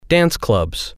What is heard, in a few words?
"dance clubs", Spanish